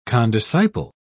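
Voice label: "condisciple", British English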